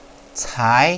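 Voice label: "cai2", Mandarin Chinese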